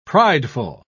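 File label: "prideful", British English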